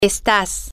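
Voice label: you are informal, Spanish, female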